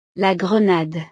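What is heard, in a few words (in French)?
"la grenade", French; frutas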